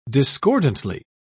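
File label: "discordantly", English, British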